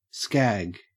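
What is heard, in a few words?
"skag" English, Australian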